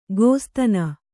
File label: "ಗೋಸ್ತನಿ", kannada